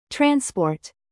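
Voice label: "bass", noun, British; transport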